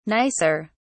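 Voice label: "nicer", British English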